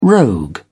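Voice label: "rogue", British English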